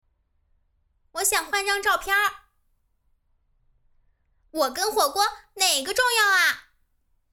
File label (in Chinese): 动画短视频 角色 女配; 梦想家